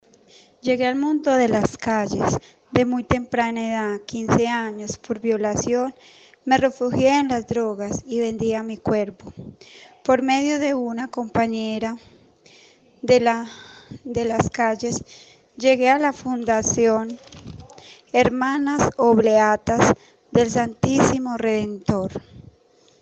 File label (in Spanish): testimonio; calles